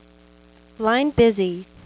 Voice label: Line Busy (Female); q95demo